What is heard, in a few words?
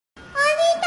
onii chan anime notification